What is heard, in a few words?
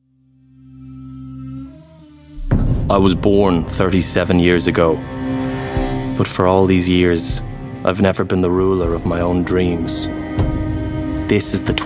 irish voice videogame